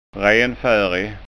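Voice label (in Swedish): "renfarig", Skåne dialect Swedish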